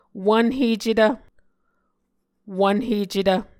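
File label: "wvnhecetv", Muscogee word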